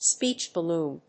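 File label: "speech balloon", English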